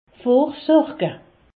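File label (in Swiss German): "vorsorje", Alsatian; medecine; prévenir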